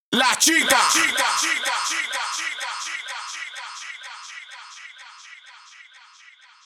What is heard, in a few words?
"La Chica", EDM Future Vocals; Big EDM; Future House Tools